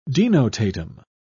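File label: "denotatum", British English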